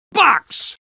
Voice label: soldier says box